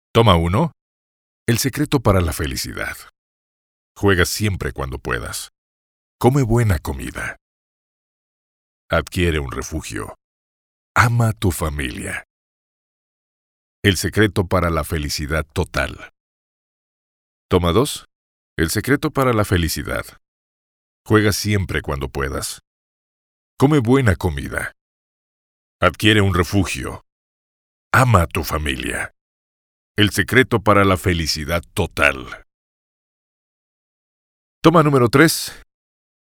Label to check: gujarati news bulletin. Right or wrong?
Wrong